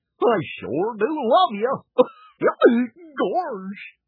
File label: talking goofy, toys